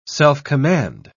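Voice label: "self-command", British English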